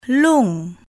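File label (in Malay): "lung", Malay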